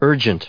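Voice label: "urgent", English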